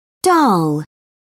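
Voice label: "dhal", British English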